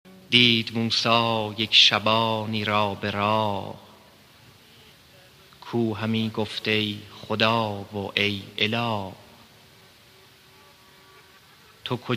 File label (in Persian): Daramad (Deklameh)